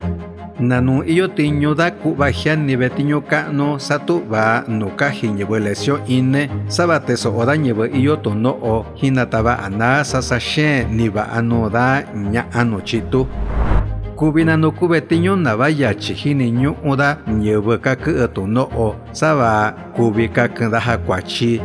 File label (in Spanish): MIXTECO